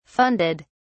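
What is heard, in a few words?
"funded", British English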